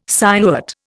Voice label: "sinuate", Indian

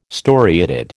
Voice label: "storiated", American

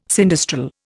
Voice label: "sinistral", Indian

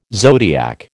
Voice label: "zodiac", American

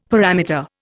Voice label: "parameter", Indian; Dictionary

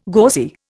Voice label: "gauzy", Indian

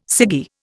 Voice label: "ciggy", Indian

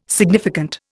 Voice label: "significant", Indian

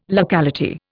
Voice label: "locality", Indian

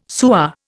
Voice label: "sewer", Indian

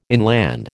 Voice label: "inland", American

